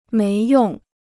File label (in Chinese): "没用", Chinese